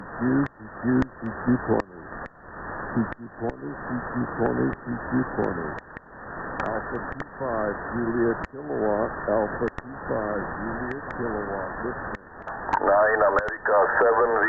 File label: botswana on 20m ssb